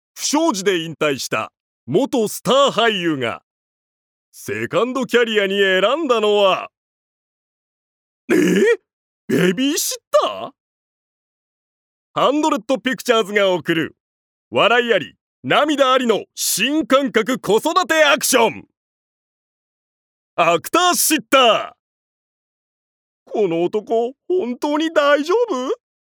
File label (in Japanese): ナレーション